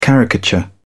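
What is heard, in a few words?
"caricature", British English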